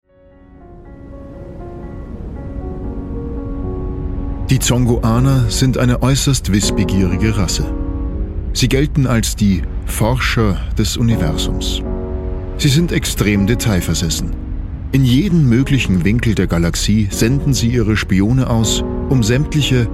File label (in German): Erzähler Intro; Spacestory